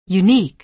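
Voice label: "unique", American English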